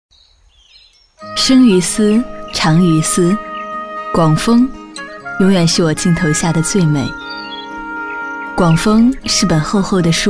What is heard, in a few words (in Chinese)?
女 微电影 口语化自然; 广丰; 温柔知性